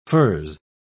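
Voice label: "furze", British English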